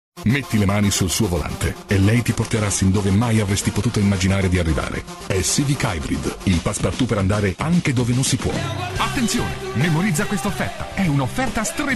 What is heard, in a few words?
commercials